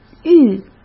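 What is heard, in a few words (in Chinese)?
"遇", Mandarin Chinese; hsk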